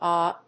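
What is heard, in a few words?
"AHH", English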